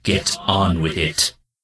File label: Death Knight Male Low Greeting